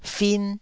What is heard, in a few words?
"fin1", taiwanese syllables